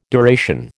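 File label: "duration", American English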